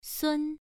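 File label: "sun" Mandarin Chinese, first tone, pronunciation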